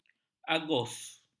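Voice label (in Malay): "agus", Malay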